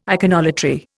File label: "iconolatry", Indian